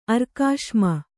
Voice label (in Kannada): "ಅರ್ಕಾಶ್ಮ", Kannada